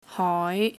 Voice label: "hoi2", Cantonese